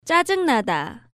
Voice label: "Jjajeungnada", Korean